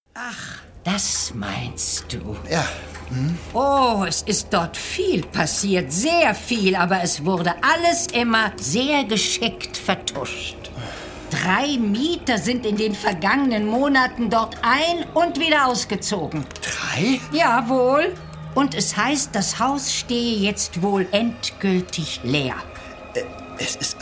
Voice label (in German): Hörspiel, frauen; sprecher; Das Gruselkabinett